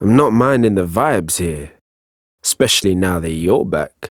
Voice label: Ambient Barks, en; Warframe; Mall Romance; Quincy